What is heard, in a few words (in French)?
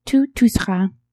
"tu tousseras", French